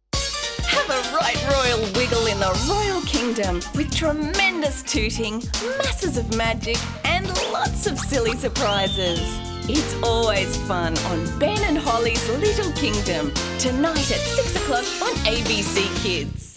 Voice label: Promo; KIDS

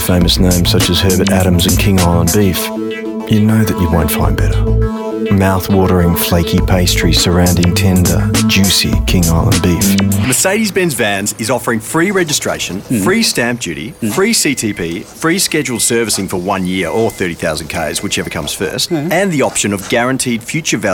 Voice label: AD VOICE

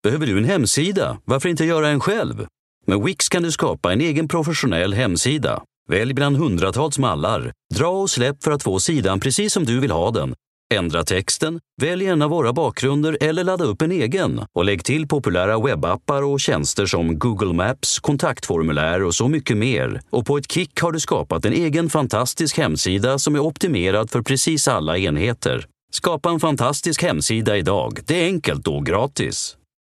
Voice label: in Swedish Fast info ad

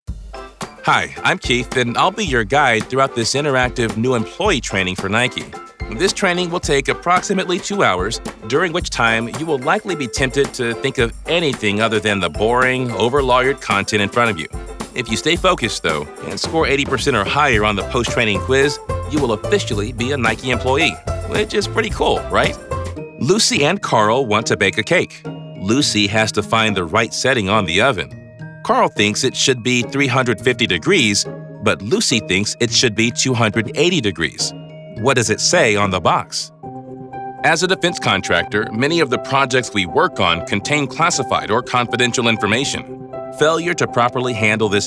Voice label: Elearning Narration

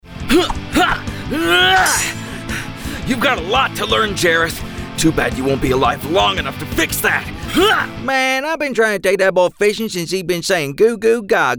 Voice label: Animation

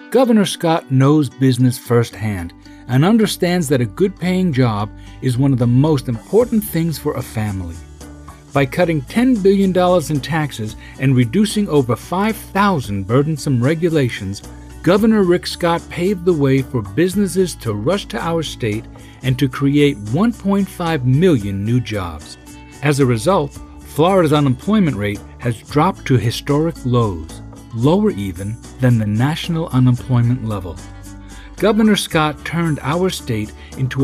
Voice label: Political Ad

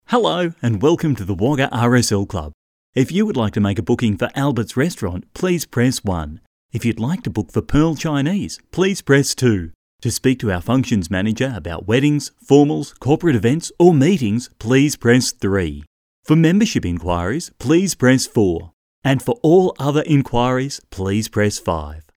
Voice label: Phone on Hold; VO Demo; Wagga RSL

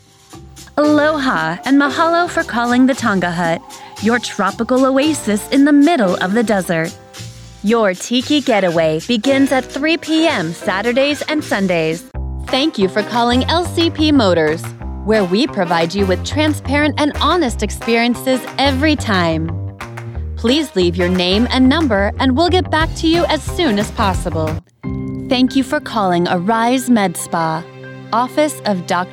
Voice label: Voicemail IVR

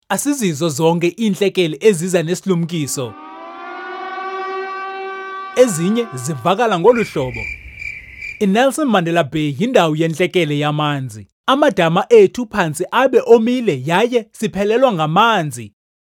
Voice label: isiXhosa

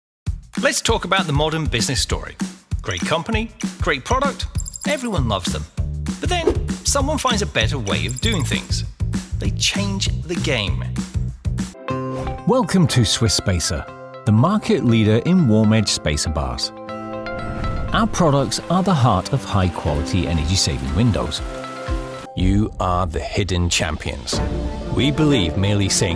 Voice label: Corporate Narration

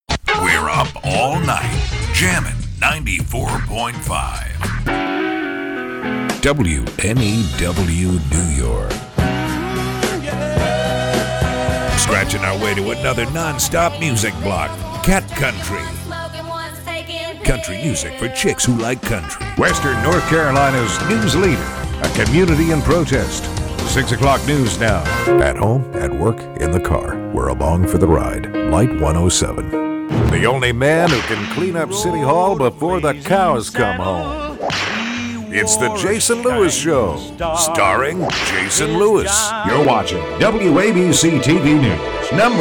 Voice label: Radio TV Imaging

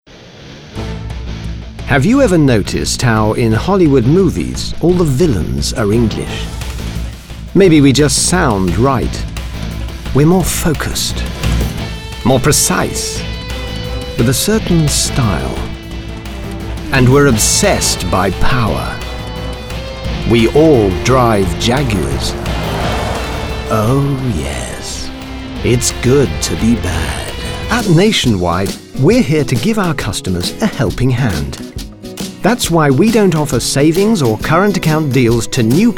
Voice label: voice reel commercial

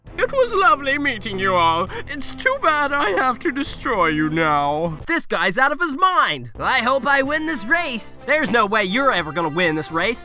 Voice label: Character

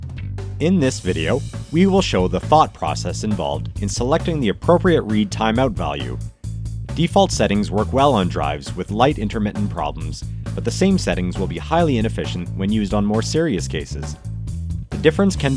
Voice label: Explainer video

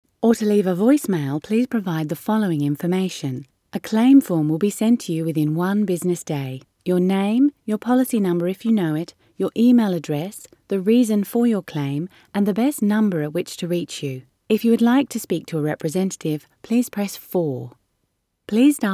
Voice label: Telephone Message; Generali

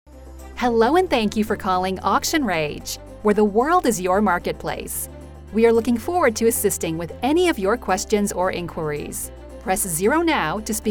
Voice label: On Hold IVR

